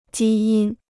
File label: "基因", Chinese